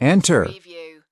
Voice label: "enter", US male; lynne publishing

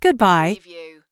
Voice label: US female phone prompt "Goodbye"; lynne publishing

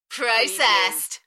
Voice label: "Processed", UK female, Enthusiastic; beanstalk audio

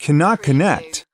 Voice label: US male cannot-connect; lynne publishing

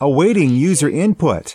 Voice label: "awaiting user input", US male; lynne publishing

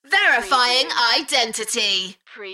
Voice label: UK female, Verifying identity, Enthusiastic; beanstalk audio

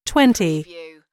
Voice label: US female phone prompt; lynne publishing

